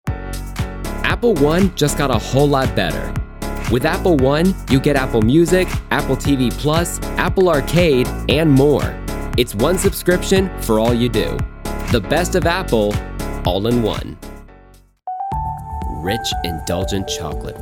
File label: Corporate